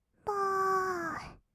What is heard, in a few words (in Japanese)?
"ぼーっ", kawaii voice; 1200 kawaii voice!!; 色々なワンショット